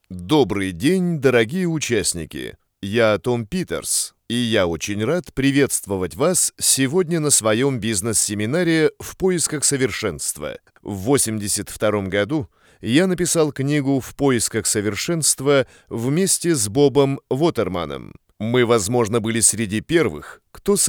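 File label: Russian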